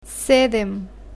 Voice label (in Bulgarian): "sedem", bulgarian